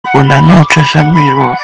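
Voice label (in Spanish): "buenas noches", Spanish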